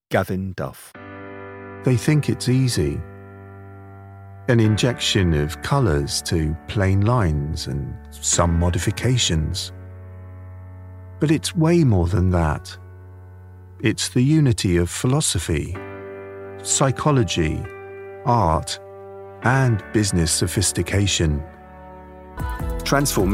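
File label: Corporate eLearning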